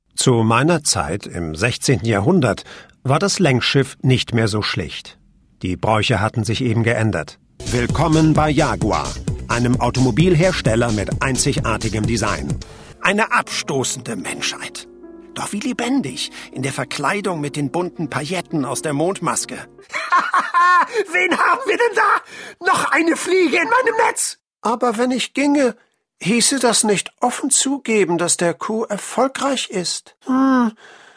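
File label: German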